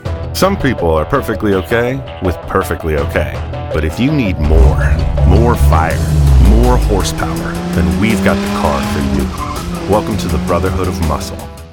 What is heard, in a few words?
Commercial; Brotherhood of Muscle